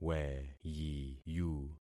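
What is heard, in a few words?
"weyiyu", concatenated syllables; Affixing experiment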